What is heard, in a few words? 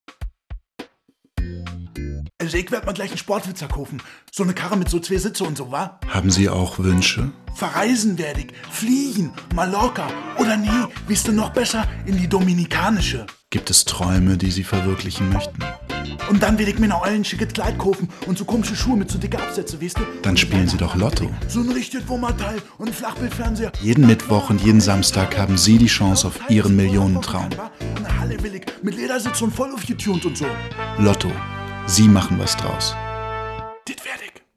Commercial